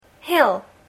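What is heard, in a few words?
"hill", English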